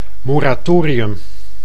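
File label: "moratorium", Dutch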